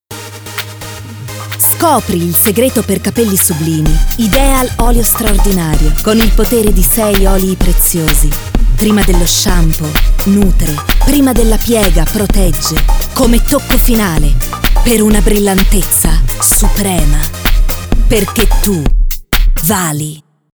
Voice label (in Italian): Spot